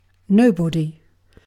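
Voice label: "nobody", British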